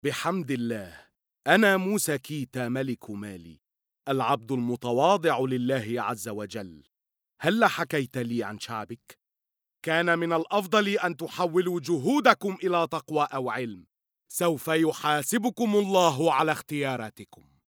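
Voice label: arabic male narration; voice demos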